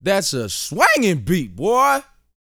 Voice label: BOAST MF; Vocal Planet; R&B Dance